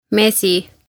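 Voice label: "Mesi" Estonian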